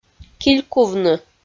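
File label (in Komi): "килькувны", Komi, female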